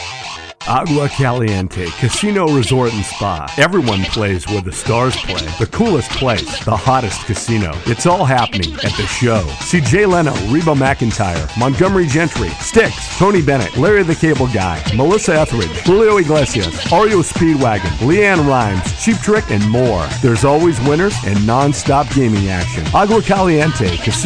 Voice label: audio commercial; AGUA CALIENTE RESORT CASINO-THE SHOW